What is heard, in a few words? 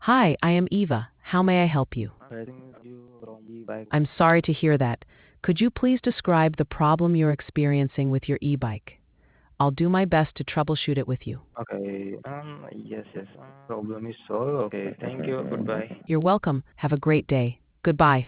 conversation